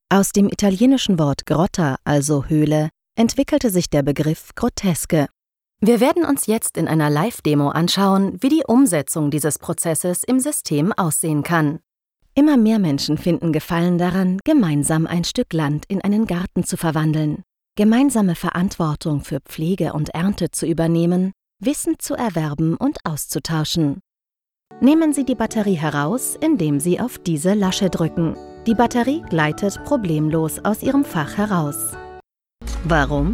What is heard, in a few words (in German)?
Elearning und Erklaerfilm